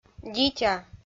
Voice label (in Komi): "дитя", Komi